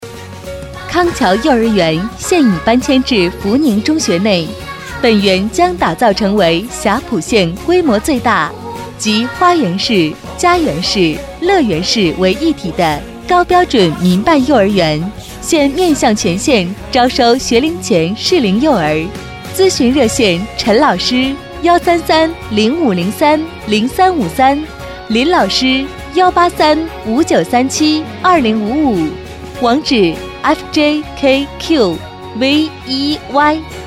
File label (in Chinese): 女, 专题广告, 幼儿园